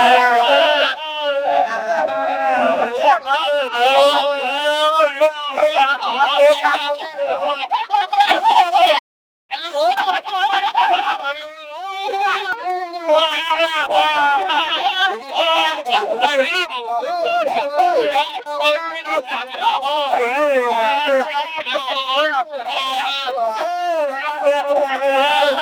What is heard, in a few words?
goblins arguing with each